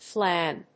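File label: "flan", English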